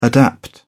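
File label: "adapt", English, British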